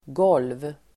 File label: "golv", Swedish